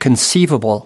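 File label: "conceivable", US English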